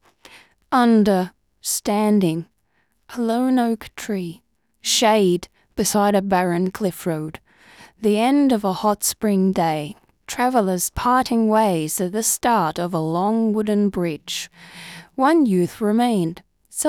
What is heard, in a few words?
Novel Narration Opening, AI; Maya